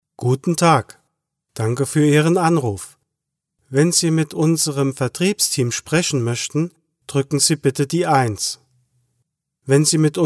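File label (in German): German, male, telefon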